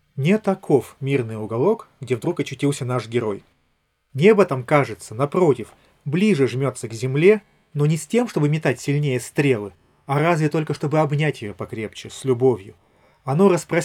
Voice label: Recorder; Запись на профессиональный рекордер; Khadas Tea Pro